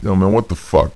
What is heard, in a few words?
male neutral talk; kingpin loc